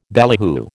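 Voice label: "ballyhoo", American English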